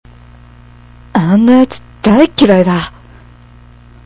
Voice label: dislike m

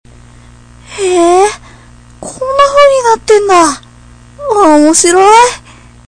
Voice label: funny w

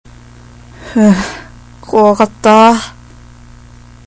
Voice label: terrible m

